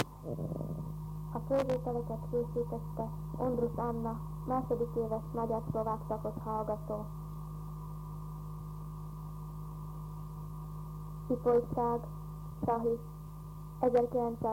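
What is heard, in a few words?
dialect collections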